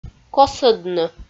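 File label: "косӧдны", Komi, female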